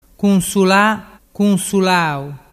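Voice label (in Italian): "cunsulalau", Vernazzese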